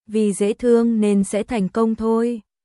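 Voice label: Vietnamese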